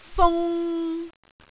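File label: "fung3", Cantonese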